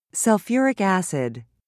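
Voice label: "sulfuric acid", British English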